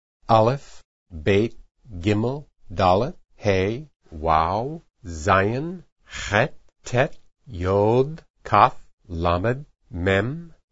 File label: alpha fast, hebrew